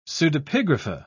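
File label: "pseudepigrapha", British English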